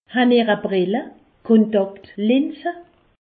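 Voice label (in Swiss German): "brill", Alsatian; medecine; portez vous des lunettes des lentilles de contact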